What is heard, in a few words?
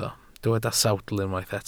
"sawdl", Welsh